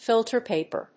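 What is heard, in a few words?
"filter paper", English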